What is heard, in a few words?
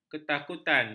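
"ketakutan", Malay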